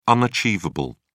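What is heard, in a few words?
"unachievable", British English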